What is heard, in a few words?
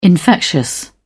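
"infectious", British English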